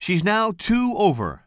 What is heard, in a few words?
commentator